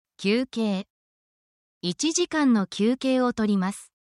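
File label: Japanese word, japanese phrases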